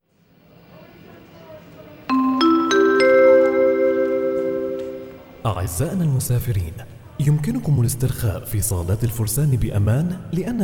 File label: arabic announcements; Kanada; Arabisch